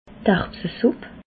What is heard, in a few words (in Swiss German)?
"erbse supp", alsace